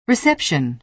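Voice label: "reception", American English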